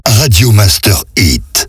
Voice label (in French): accap slow; Radio Master Hits; Voix off